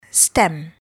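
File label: "stem", English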